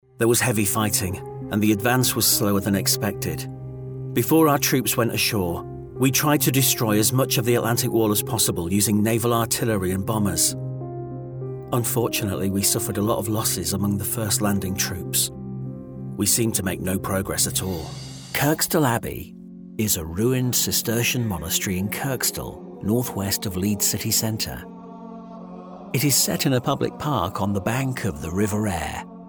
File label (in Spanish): Museo y audioguias